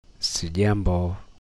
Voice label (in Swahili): "sijambo", greetings